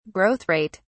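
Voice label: "growth rate", British English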